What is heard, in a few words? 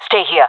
request hold, jungle fem; player vo jungle fem; Counter Strike Model Pack; CS:GO